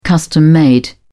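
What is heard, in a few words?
"custom-made", British English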